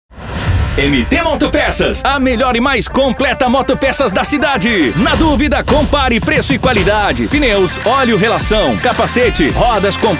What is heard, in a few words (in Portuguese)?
Spot Especial; MT Moto Pecas